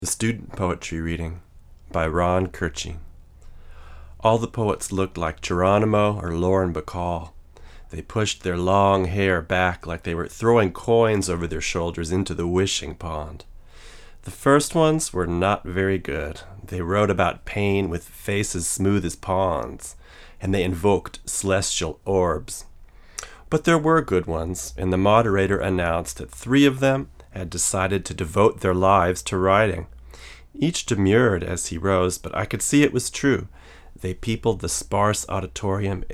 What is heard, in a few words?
Fig 8 VO, Neumann KM88; Fig. 8 back side